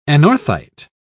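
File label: "anorthite", British English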